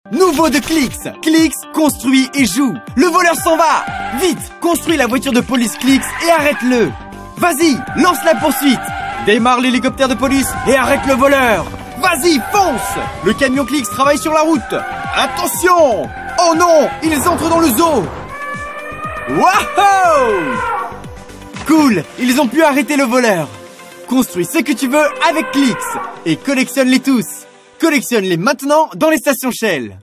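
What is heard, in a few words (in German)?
werbung, French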